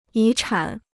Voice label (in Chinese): "遗产", Chinese